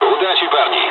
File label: dialogs russian; War Thunder